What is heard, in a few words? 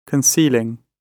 concealing, gb